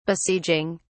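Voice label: "besieging", British English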